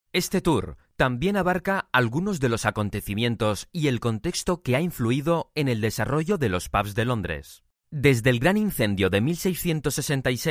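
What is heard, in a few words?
Spanish EU, male, audiobook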